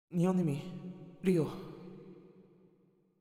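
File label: "Welcome Back", voice; sora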